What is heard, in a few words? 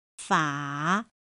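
"fǎ", Mandarin Chinese; pinyin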